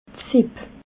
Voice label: "seb", alsace

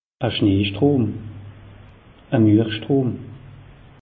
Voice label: "schnee strom", alsace; meteo

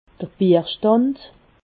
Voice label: "bierstand", alsace

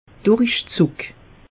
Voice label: "durichzug", alsace; meteo

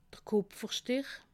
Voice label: "kupferstich", alsace

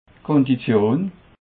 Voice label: "kondition", Alsace

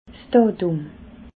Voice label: "datum", alsace

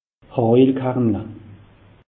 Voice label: "hajel kernle", alsace; meteo

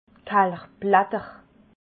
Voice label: "kelchblatter", alsace

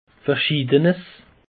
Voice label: "verschiedenes", alsace; peche

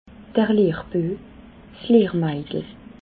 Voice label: "lehrbue", alsace

